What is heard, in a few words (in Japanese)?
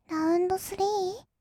ラウンドスリー, kawaii voice; 音ゲー